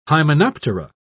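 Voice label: "hymenoptera", British English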